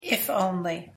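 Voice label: "if only", English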